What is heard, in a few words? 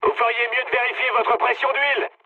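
damaged oil, mood medium, French; War Thunder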